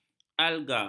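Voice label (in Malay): "alga", Malay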